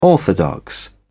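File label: "orthodox", British English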